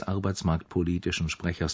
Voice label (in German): arbeitsmarktpolitischen Sprechers, deaccented nouns; dirndl adjective noun